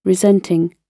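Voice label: "resenting", British English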